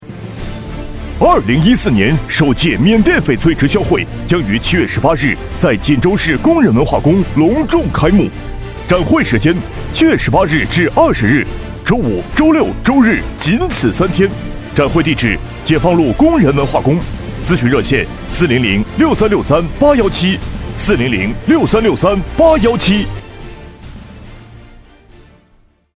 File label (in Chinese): 男 广告 直销会 激情大气; 缅甸翡翠